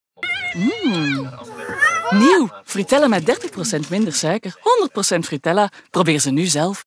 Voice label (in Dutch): Vlaams Belgie; Fruittella